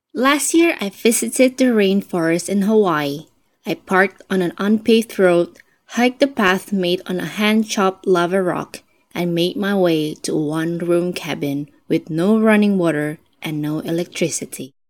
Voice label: ENGLISH - Female - Adult, India; Ker Sound Studio Shanghai China